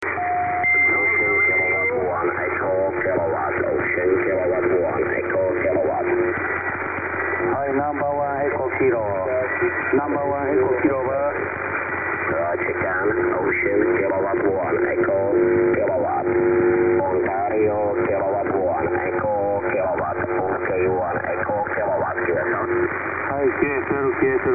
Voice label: 80m ssb; Asia